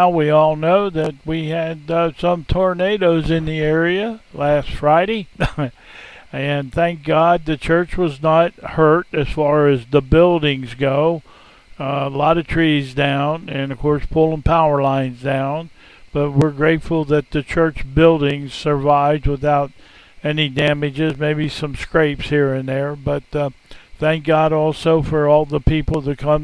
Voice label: Welcome and Announcements